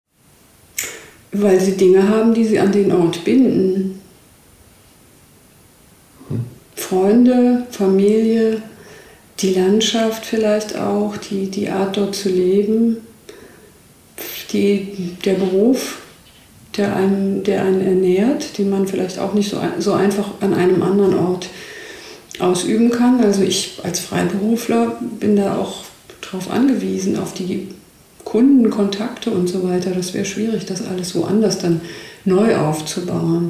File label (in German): deutsch